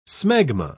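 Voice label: "smegma", English, British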